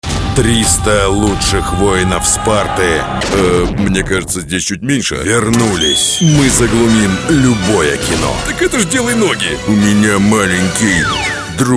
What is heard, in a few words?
diktor